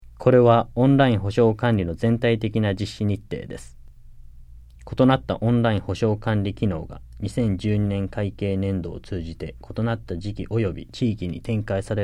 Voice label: JAPANESE; delltranslations